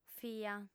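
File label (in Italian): "fia", lingua sarda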